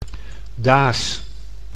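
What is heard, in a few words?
"daas", Dutch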